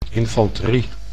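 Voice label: "infanterie", Dutch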